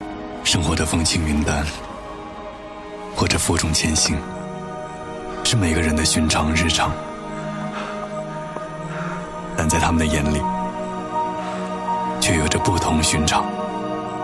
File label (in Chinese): 男 走心; 生活的风轻云淡